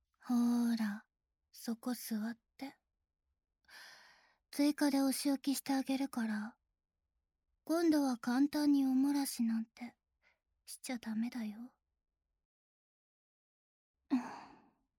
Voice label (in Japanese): 耳舐め＆足コキ, ASMR